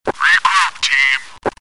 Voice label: regroup, Radio; svencoop; FZRadio